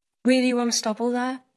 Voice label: Voice Acting es F